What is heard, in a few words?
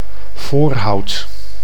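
"Voorhout", Dutch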